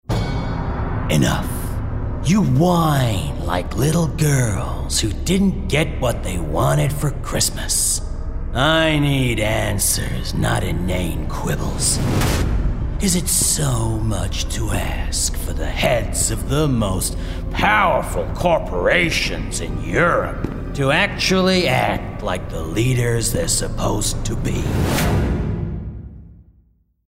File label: Gaming Character Evil Boss US; Evil Boss American